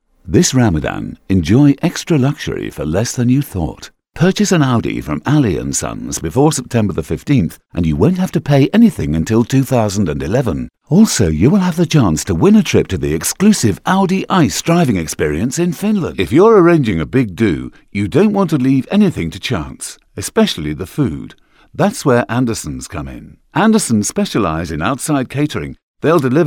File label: dry voice